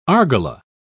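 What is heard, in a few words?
"argala", English, British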